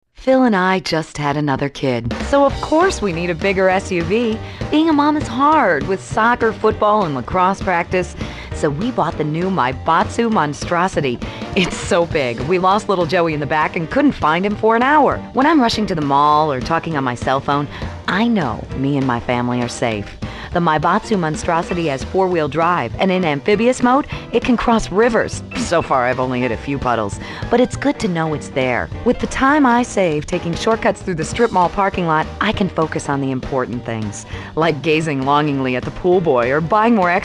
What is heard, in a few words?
commercial; GTA3 Radio Stations - Commercials